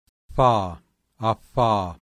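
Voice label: bilabial fricative, voiceless; IPA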